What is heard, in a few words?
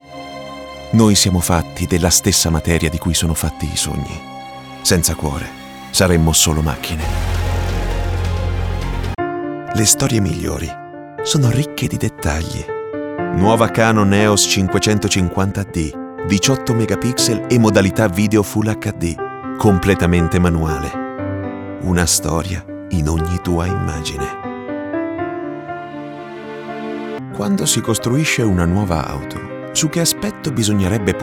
ITALIAN VOICES Commercial, male voices